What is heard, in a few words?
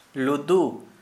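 "cockroach", Santali, male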